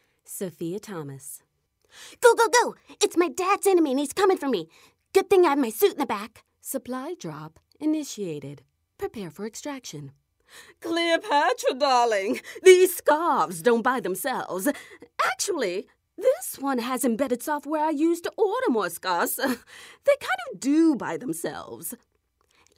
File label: Animation